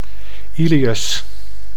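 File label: "ileus", Dutch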